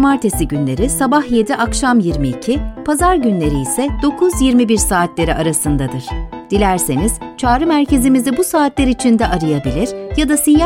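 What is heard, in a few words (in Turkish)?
santral total, Turkish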